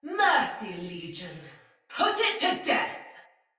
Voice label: See Ally Hit Sinecure Soldier Female; Battle; Barks; Dialog